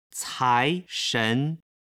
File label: "caishen", Chinese